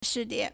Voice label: "shi4dian3", Chinese

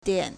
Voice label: "dian4", Chinese

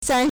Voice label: "san1", Chinese

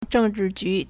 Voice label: "zheng4zhi4ju2", Chinese

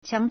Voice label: "xiang3", Chinese

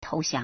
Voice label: "tou2xiang2", Chinese

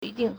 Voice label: "yi1ding4", Chinese

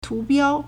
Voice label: "tu2biao1", Chinese

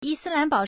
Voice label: "yi1 si1 lan2 bao3", Chinese

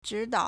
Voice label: "zhi3dao3", Chinese

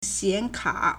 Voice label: "xian3ka3", Chinese

